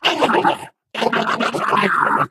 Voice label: ballbot angry rant